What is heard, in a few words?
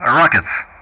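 "rockets", English; Ka-50; Weapon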